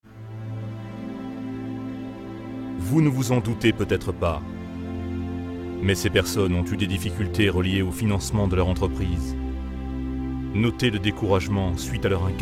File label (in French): Voix grave serieuse puis dynamique; Ohana Capital